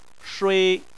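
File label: "shui", Chinese, first tone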